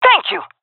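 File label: thankful, female; player vo; Counter Strike Model Pack; CS:GO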